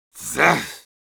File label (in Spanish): Medic jeers, es